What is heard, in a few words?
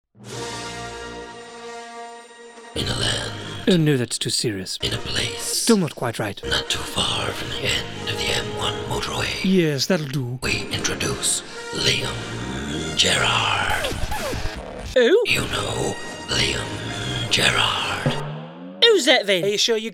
Character